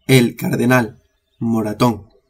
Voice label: "cardenal", spanish

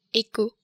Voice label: "echo", french

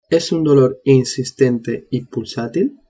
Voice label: "pulsatil", spanish

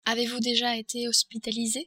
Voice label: "hospitalise", French

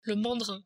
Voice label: "mandrin", French

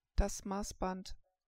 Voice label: "massband", german

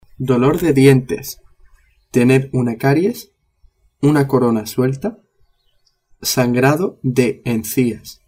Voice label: "dientes", spanish

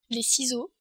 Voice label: "ciseaux", french

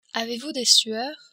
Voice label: "sueurs", French

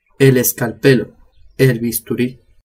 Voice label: "escalpelo", spanish

